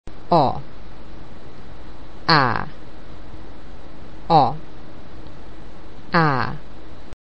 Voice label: Hungarian Vowels Comparison A